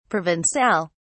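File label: "provencal", British English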